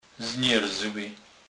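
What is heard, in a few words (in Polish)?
"znerzly", Kurpie dialect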